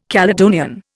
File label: "Caledonian", Indian